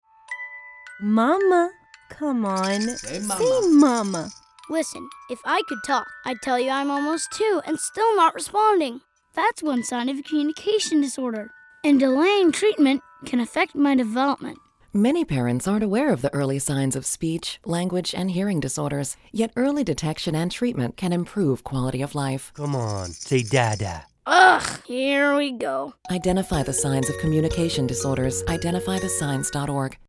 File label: radio PSA English